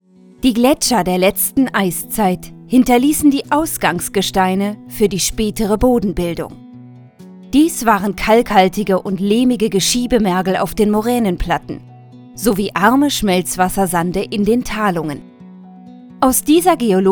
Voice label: Dokumentation

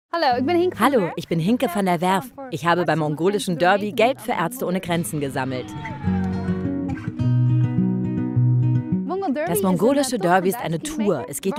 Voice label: VOICEOVER; Aerzte ohne Grenzen

